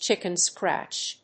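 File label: "Chicken scratch", English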